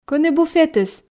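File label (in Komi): кӧні буфетыс, Komi, female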